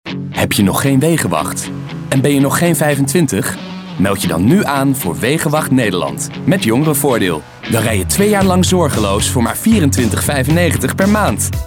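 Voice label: Dutch, male, commercial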